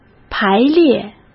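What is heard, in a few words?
"排列" Mandarin Chinese; chinese flashcards; hsk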